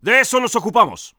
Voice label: Spain Order; Civ4